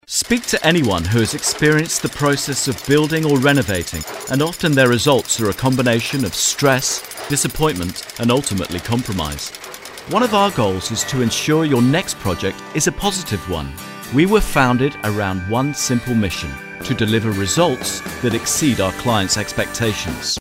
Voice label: advertising; Voicetonic